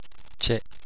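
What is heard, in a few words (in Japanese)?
"che", Japanese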